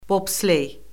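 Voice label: "bobsley", Azerbaijani; Orfoqrafiya Lüğəti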